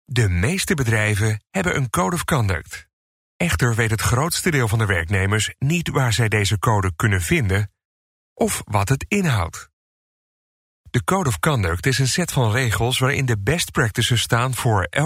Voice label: E-learning